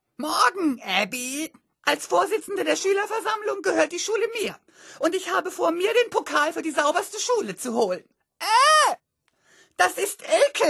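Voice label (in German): Zicke, Sprecher, Männer; Berlin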